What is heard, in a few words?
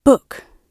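"book" spoken, British English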